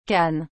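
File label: "cane", French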